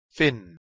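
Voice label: "thin", English word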